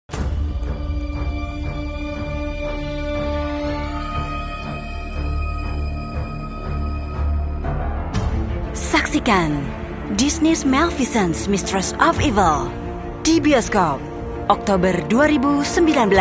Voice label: Malay voice sample